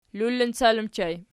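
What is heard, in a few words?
"lullincal" ergative plural, Archi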